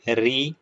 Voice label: short voyels arabic alphabet